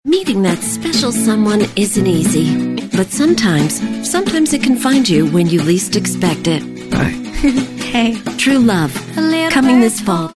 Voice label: Network Television Imaging friendly upbeat